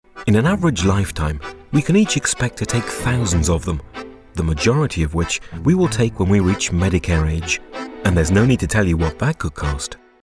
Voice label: wry

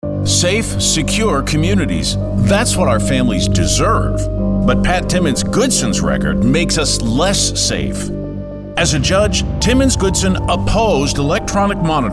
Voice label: Political Negative

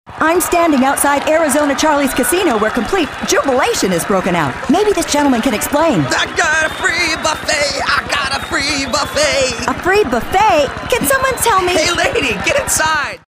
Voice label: newscaster

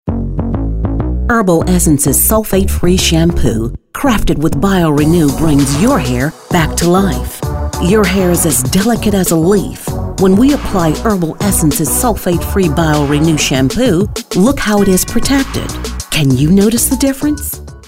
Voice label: smooth

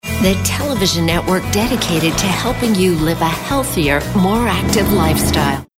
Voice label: Network Television Imaging friendly genuine

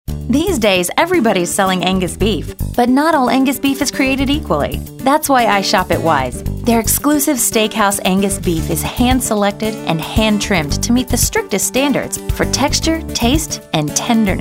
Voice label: friendly genuine

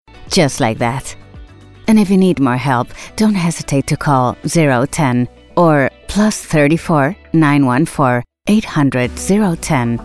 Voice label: Madrid Accented English, friendly; Madrid City Hall

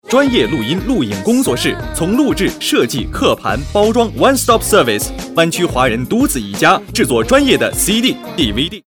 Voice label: Foreign Commercial

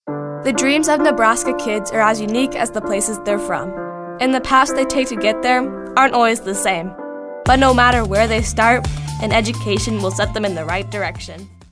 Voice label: narrative

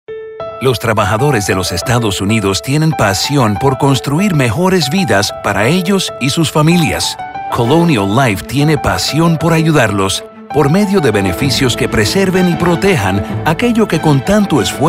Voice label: caring

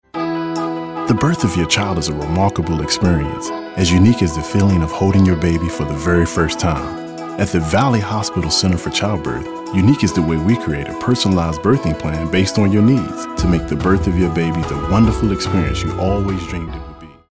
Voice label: Warm

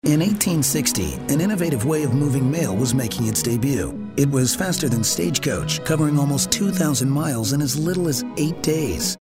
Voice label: storytellers

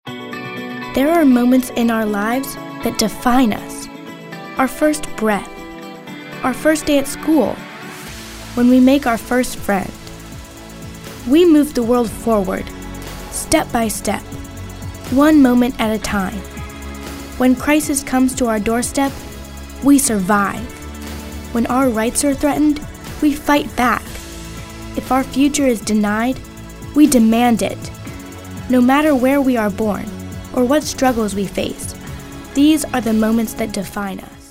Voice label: inspirational

